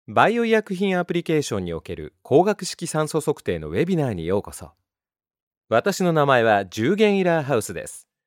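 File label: Japanese elearning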